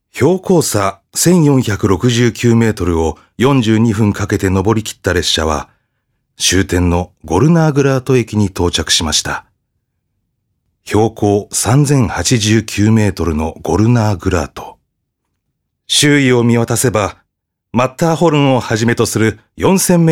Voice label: male voice; ナレーション